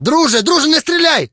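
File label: surrender, Ukrainian; combat ukr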